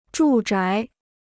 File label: "住宅", Chinese